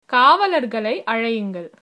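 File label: police, Tamil, female; Call the police!; காவலர்களை அழையுங்கள்!; kaavalargalai azhaiyungal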